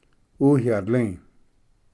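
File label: "uyatli", Cherokee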